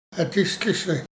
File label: "Adíshtl'íshe", Dane-ẕaa; Rêveurs